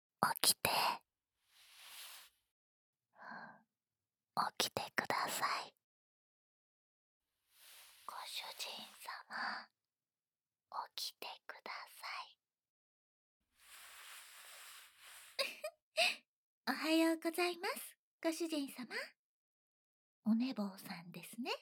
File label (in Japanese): 囁き罵倒スマタ